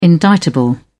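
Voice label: "indictable", British English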